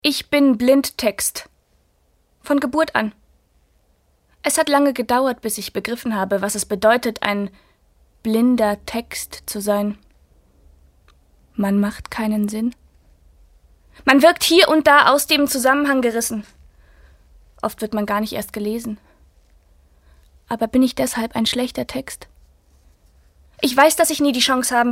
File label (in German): Energisch